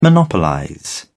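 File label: "monopolize", British English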